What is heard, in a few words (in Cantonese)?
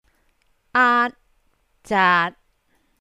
aat zaat3, cantonese